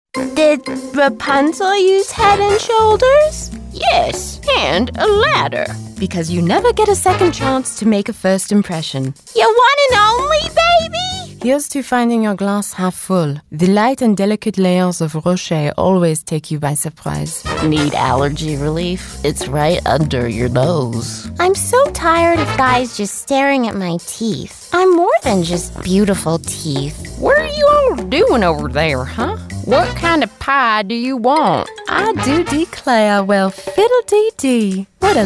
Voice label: character